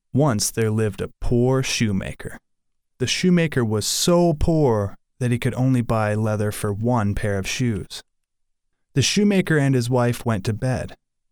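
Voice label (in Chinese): 外籍男英_外语_美式英语_旁白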